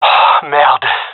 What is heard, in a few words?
male close call; vo